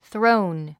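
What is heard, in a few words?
"throne", English word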